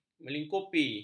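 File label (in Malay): "melingkupi", Malay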